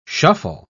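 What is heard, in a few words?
"shuffle", English word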